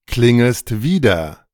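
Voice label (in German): "klingest wider", German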